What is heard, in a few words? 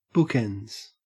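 "bookends", Australian English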